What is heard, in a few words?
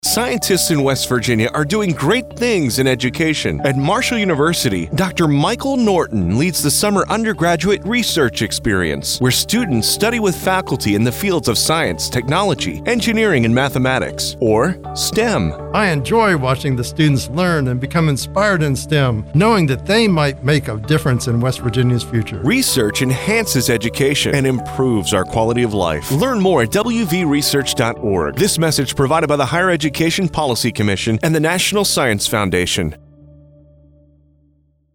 Radio advertisement